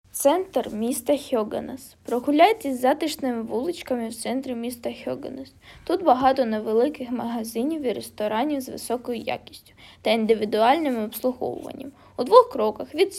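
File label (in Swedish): Höganäs stadskärna ukrainska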